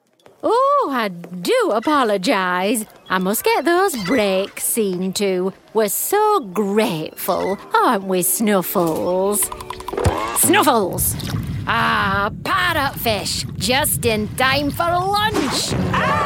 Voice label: character, Lancashire Female Voiceover Actor